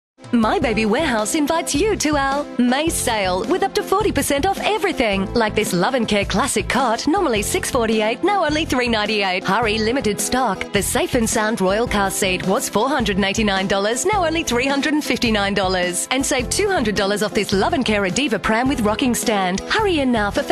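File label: English Australian commercial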